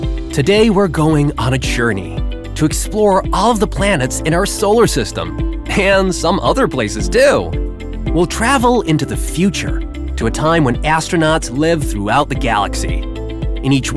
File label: narration